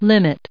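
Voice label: "limits", US English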